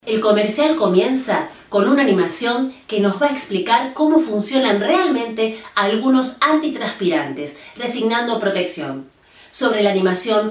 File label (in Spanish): Mujeres; Casting Impulse